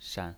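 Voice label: "shan", Mandarin Chinese pinyin, neutral tone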